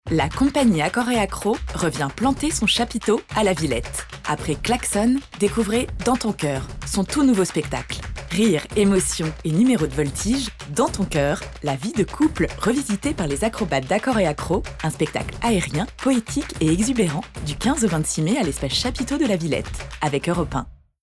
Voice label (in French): radio; RADIO VILLETTE AKOREAKRO